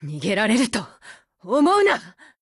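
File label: BREAK Japanese, Voice; GF Alarm; AK15Mod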